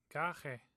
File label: "gashibe", omaha language